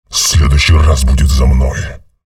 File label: chatter negative; visor; respawn